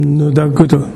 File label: "nudaguru", minamiyamagata